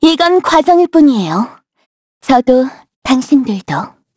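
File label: Vox Victory, Korean; voices; heroes; Xerah; kings raid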